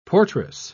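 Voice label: "portress", British English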